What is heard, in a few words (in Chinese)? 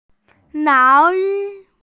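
"mao2yi1", Chinese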